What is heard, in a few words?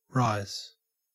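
"rise", Australian English; pronunciations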